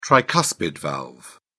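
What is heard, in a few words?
"tricuspid valve", British English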